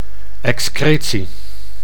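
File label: "excretie", Dutch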